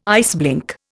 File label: "iceblink", Indian